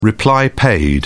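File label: "reply-paid", British English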